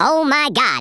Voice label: "amazing", US Sports

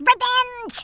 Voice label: "revenge", Brooklyn; Worms Armageddon

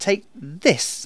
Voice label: "dragon punch", Speech; Worms Armageddon

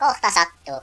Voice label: "incoming", Finnish; Worms Armageddon

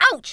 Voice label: "ouch", Smooth Babe; Worms Armageddon

